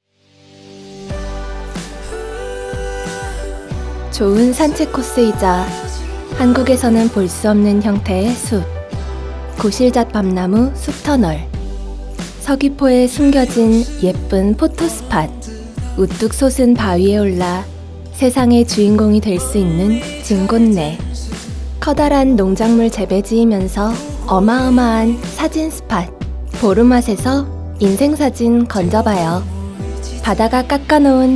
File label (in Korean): 광고 내레이션; 제주도의 숨은 명소